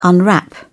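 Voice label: "unwrap", English, British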